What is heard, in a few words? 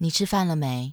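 mandarin tw, Chinese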